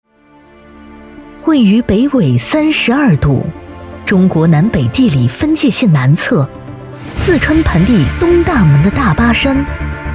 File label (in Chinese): 专题大气沉稳; 龙森公司